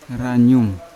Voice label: "ranyum" to plant, Yawa